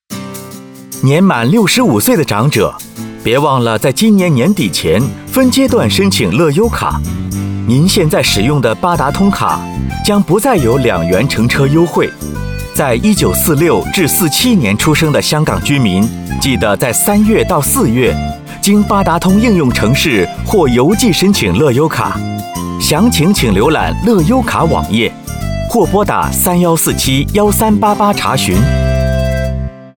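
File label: Mandarin; fare concession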